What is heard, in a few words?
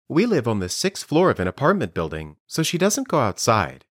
Dictation